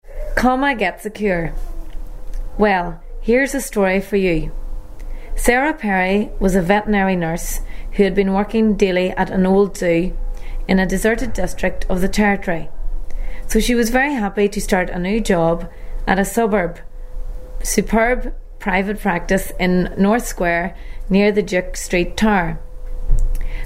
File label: passage, dialects ni